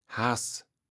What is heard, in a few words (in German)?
"Hars", Platt